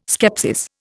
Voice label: "skepsis", Indian

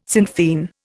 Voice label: "Sinn Fein", Indian

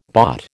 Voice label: "bought", American

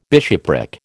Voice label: "bishopric", American

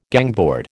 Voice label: "gangboard", American

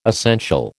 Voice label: "essential", American

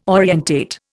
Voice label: "orientate", Indian

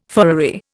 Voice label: "furriery", Indian

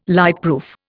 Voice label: "lightproof", Indian

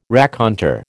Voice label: "raconteur", American

